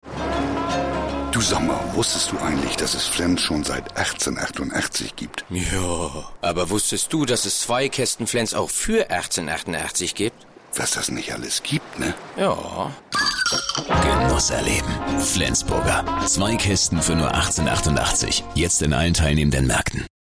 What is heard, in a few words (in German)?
dialekt